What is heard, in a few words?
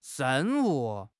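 "ren4 wu5", Chinese; HSK4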